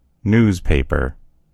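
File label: "newspaper", American English; gcompris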